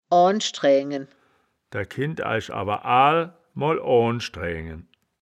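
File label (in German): "oan-sch-draeaengen", wittlicher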